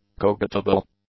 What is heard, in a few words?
"cogitable", English; adjectives